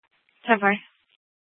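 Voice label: Police Department Dispatch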